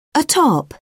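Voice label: "atop", English, uk British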